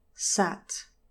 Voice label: "sat", English pronunciation, trap vowel

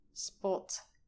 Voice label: "spot", english pronunciation

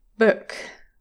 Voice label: "book", english pronunciation

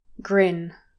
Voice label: "grin", English

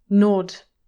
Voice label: "nod", english pronunciation

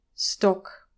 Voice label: "stock", English

